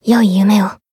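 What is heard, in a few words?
Voice Japanese; maturika; finish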